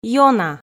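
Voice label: "ёна", Komi, female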